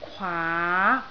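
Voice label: "kwaa2", Cantonese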